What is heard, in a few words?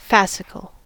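"fascicle", American English; pronunciations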